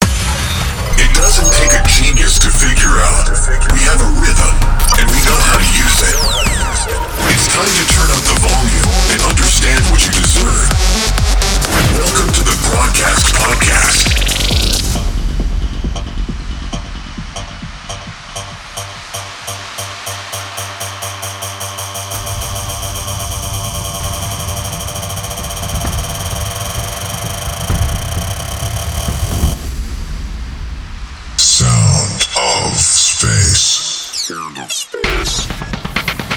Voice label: Intro - Male Voice UK